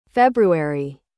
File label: "February", US; تلفظ آمریکایی فوریه